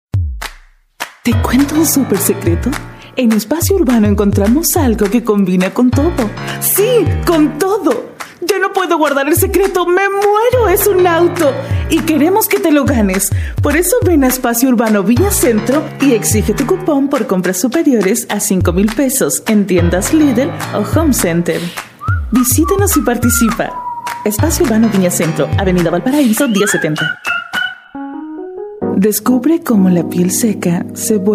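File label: latam female commercial; voice demos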